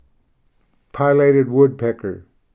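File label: "pileated woodpecker", English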